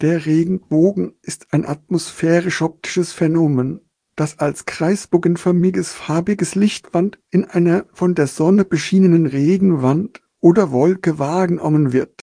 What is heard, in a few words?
de DE emotional; thorsten emotional